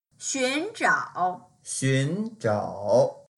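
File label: "xun2zhao3", Mandarin Chinese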